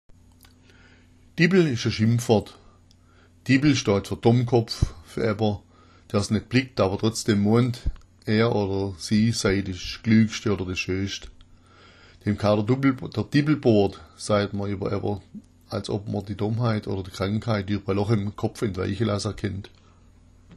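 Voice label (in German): dippl, schwäbisch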